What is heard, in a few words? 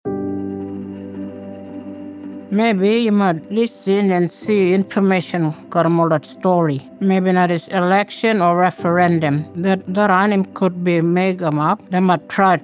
West Side Kriol, Radio mix; indigenous; AEC Misinfo